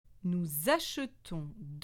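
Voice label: "Nous achetons", French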